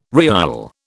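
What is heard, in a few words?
"riyal", British English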